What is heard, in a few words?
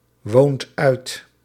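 "woont uit", Dutch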